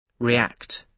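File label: "react" English, British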